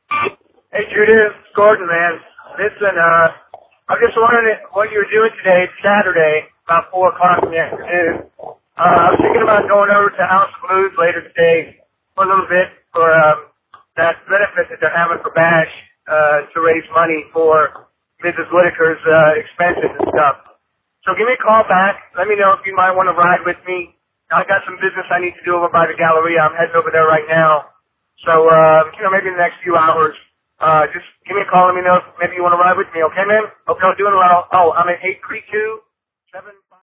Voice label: Voicemail